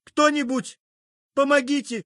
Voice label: help man ru